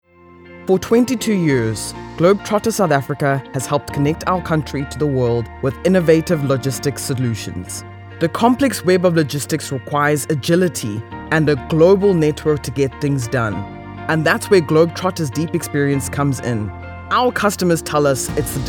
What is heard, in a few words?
Corporate Explainer Professional